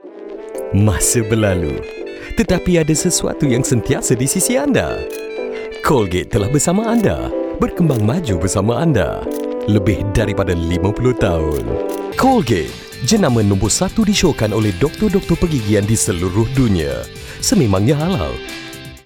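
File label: Malay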